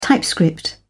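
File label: "typescript", English, British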